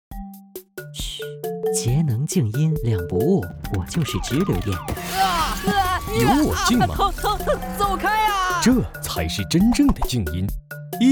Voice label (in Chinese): 男 视频; 科龙空调